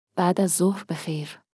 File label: Farsi Good afternoon; Greetings